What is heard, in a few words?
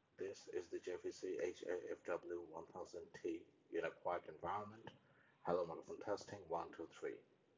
Quiet; JVC 1000T